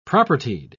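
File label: "propertied", British English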